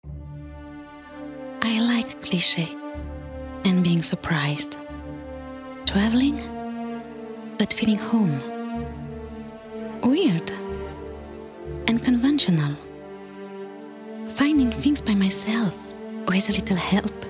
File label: English; English Voice; Tessiture Voix Médium – Aiguë